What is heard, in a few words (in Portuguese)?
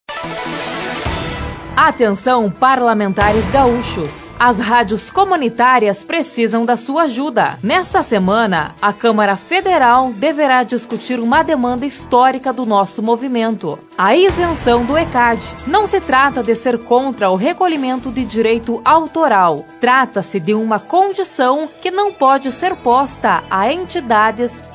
VOZ FEM; ABRAÇO RS